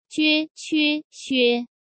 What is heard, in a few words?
jue que xue 撅 缺 薛, Chinese Pinyin; J, Q, X Sound